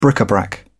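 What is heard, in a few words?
"bric-a-brac", English, British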